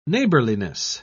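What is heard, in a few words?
"neighbourliness", British English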